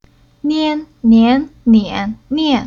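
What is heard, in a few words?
"Nian", Chinese syllable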